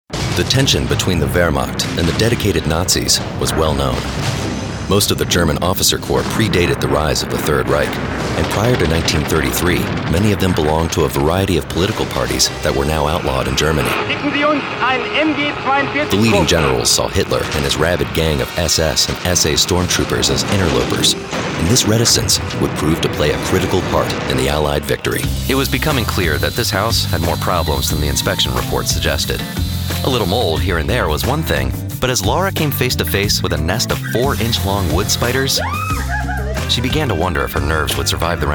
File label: TV narration